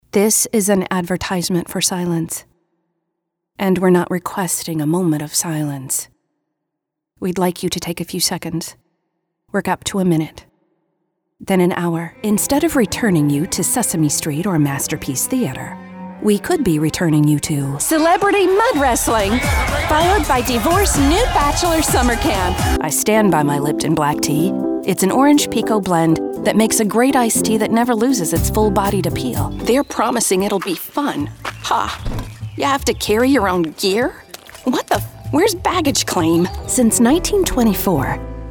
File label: Commercial